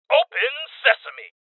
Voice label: Voices Door Kick Male; rebel cops 2019

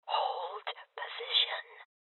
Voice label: Voices Guardian Female Old; rebel cops 2019

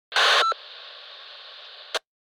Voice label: Dispatcher Message

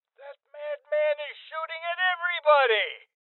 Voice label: Voices Male Old; rebel cops 2019

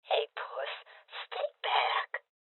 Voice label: Voices Guardian Female Old

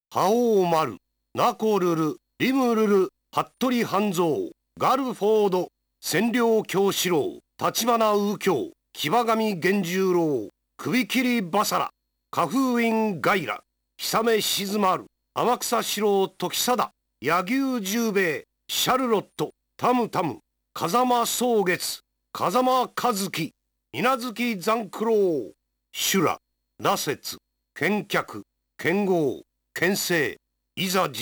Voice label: Announcer

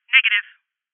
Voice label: Voices Refuse Female

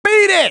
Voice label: "Beat It", announcer; Dance Dance Revolution Extreme; PS2; Gameplay Failed

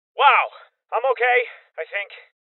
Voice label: Voices Armor Shot Male Young; rebel cops 2019 gamerip

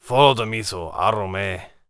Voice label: warrior conversation emotion neutral

